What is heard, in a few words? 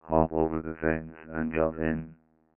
sinewave speech; buzz